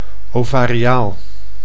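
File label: "ovariaal", Dutch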